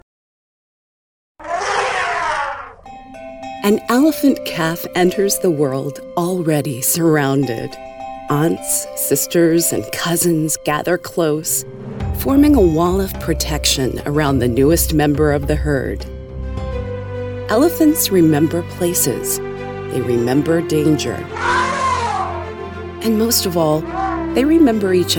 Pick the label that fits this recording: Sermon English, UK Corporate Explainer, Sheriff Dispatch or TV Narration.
TV Narration